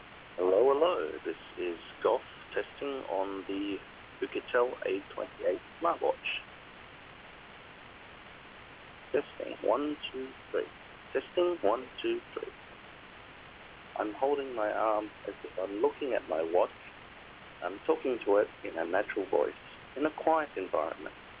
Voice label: audio call gsm